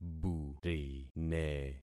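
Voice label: "burine", concatenated syllables; Affixing experiment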